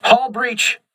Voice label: voice message hull breach, crew ships english

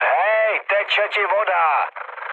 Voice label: damaged water, speech cze, mood low; War Thunder

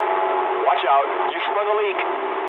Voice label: eng damaged water mood low, speech eng

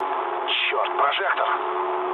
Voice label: searchlite, mood low, speech ru; War Thunder

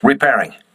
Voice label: voice message repairing, crew ships english

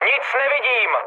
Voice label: searchlite, speech cze, mood med; War Thunder

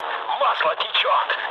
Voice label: damaged oil, mood low, speech ru; War Thunder

